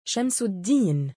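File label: male "shamsuddiin", Arabic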